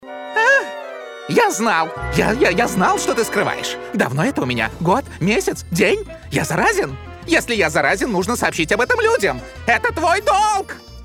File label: lipsink multfilm